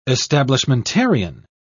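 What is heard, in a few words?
"establishmentarian", British English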